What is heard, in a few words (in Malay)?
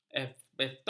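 "asbestosis", Malay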